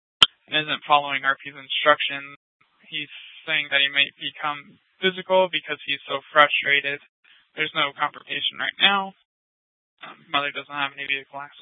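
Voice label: police dispatch radio, scanner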